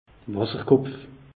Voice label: "wasserkopf", alsace; meteo

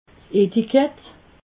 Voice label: "etiket", alsace

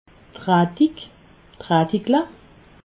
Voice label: "radig", alsace

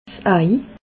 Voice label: "ei", alsace

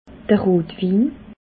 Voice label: "rotwin", alsace

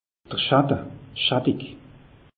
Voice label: "schatte", alsace; meteo

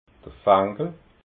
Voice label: "fanchel", alsace

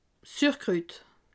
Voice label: "surkrut", alsace

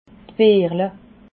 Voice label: "beerle", alsace

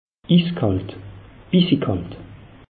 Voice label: "iskalt", alsace; meteo

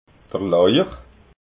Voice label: "lauich", alsace

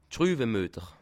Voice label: "schruwemueter", alsace

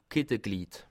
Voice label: "keteglied", Alsace

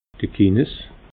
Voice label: "kines", alsace

